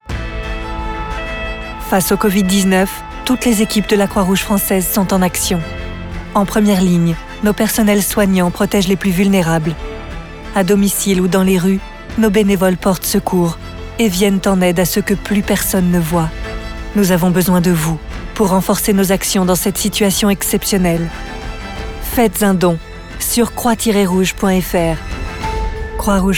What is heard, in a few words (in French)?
Publicite; Croix Rouge Francaise